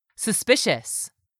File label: "SUSPICIOUS", english; 3000 words